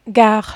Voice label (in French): "gare", French